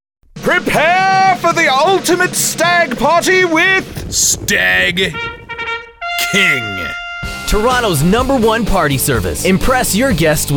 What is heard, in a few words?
RADIO AD; TSN